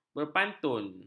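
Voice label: "berpantun", Malay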